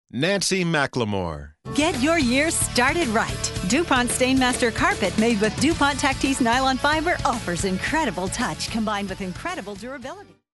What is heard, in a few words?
ENERGIZED, Energized Excited